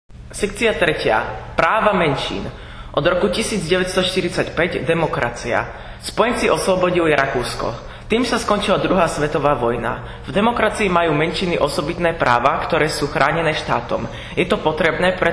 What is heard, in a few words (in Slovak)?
slovensky; Station 3 minderheitenrechte